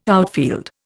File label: "outfield", Indian